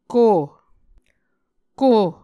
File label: "ko", muscogee